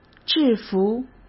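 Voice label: "制服", Mandarin Chinese; chinese-flashcards; hsk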